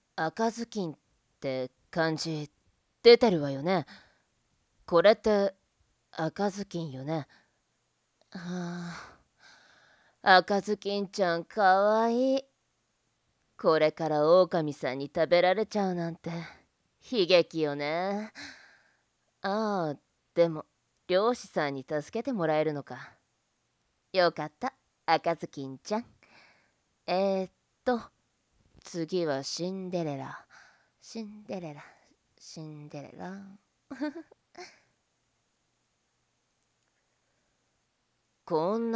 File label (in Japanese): ASMR, ツッコミ; ハル姉さん; オオカミのOLさん; ハル姉さんツッコミ！がっつり